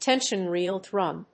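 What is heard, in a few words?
"tension reel drum", English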